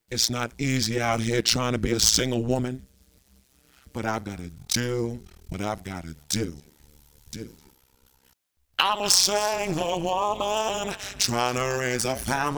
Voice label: female to male